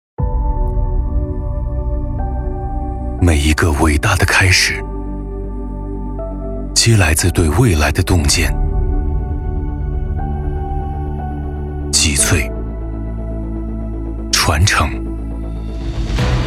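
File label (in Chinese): 广告宣传地产高端; 央视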